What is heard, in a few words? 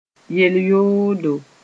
"yel-yoodo", moore